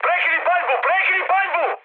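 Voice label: Serbian player friendly fire mood high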